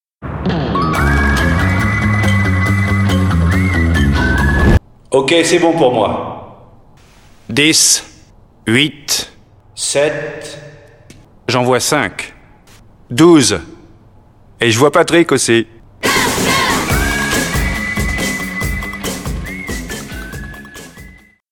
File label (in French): Doublage Nature Sympa; AUDI